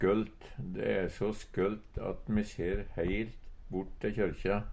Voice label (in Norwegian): "skølt", Numedalsmål; visast godt, klar sikt; Adverb